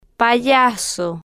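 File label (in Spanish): "payaso", spanish, female, slow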